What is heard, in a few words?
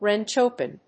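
"wrench open", English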